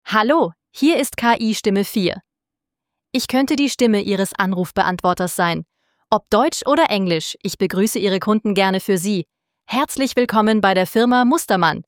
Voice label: KI-Stimme

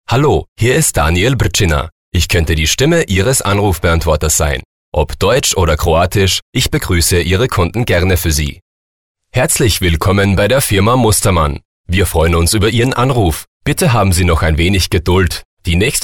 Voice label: Sprecher Telefonansagen

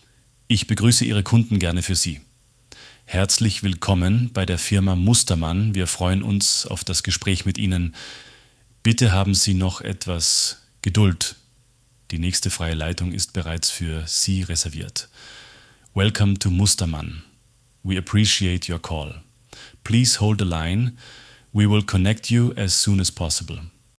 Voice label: KI-Stimme

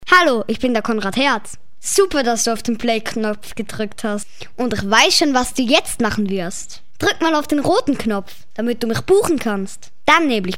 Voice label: Kinder Neutral, Kinderstimmen

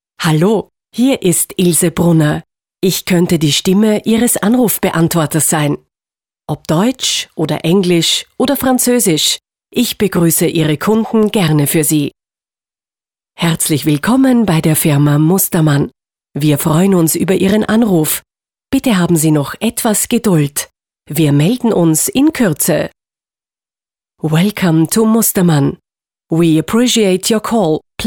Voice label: Sprecher Telefonansagen

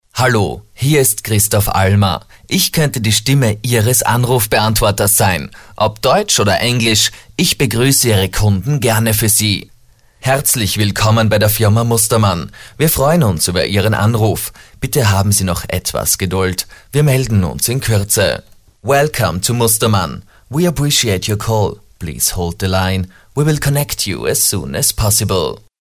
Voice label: Sprecher Telefonansagen

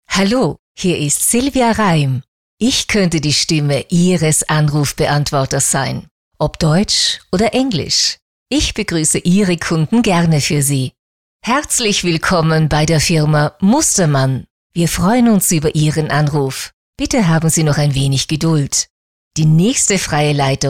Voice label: Telefonansagen

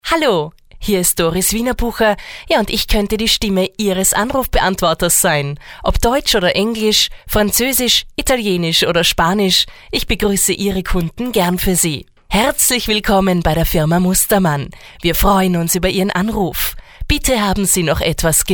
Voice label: Sprecher Telefonansagen

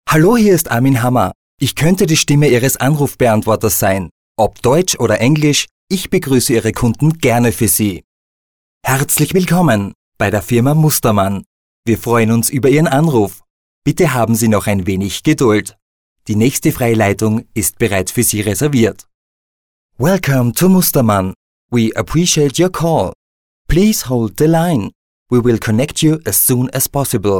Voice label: Telefonansagen, männliche Sprecher

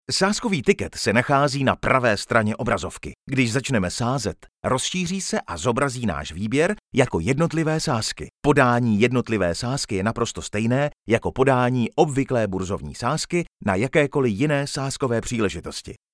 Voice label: Sprecher Native speaker

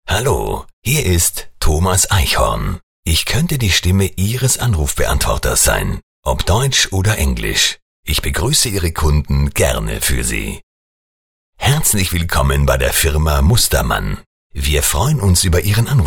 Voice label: Telefonansagen; männliche Sprecher

